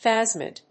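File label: "phasmid", English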